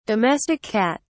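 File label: "domestic cat", British English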